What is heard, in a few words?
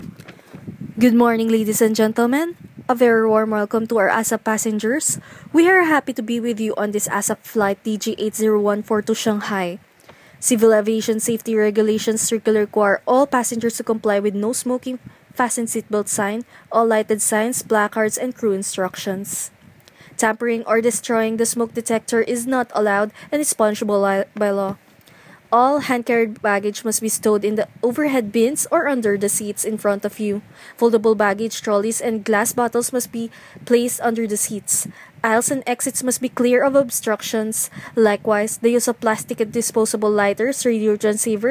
airplane announcements